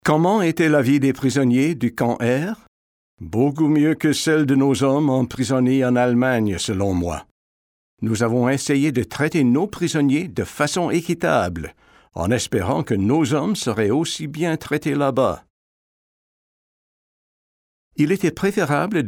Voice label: French